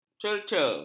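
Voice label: "cerca", Malay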